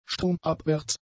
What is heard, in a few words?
"stromabwärts", German; adjectives